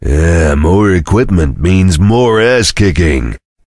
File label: cheer; svencoop; voice commands; Duke